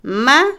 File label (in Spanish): Otomí, mujer; irse, marcharse; Movimiento; INALI